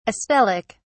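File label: "astelic", British English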